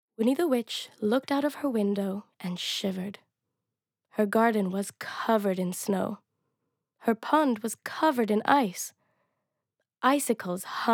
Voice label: Brand Voice Reel